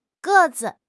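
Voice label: "ge4 zi5", Chinese; HSK3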